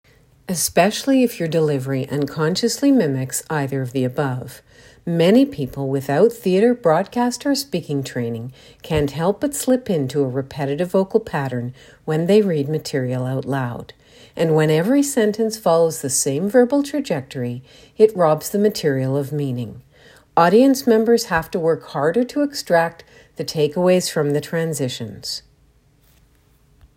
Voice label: repetitive vocal pattern